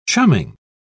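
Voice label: "chumming", British English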